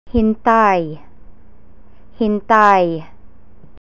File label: "hintay", Tagalog